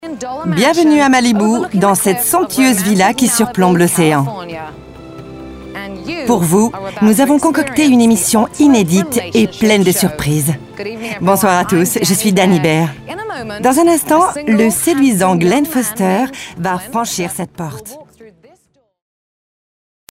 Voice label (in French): voix off; The Bachelor